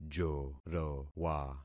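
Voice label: "jorowa", concatenated syllables; Affixing experiment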